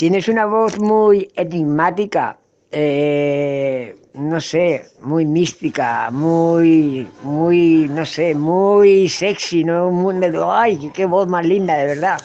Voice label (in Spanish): tienes una voz muy enigmatica sexy linda